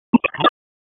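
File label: Police Special Operations Tactical; wake; p25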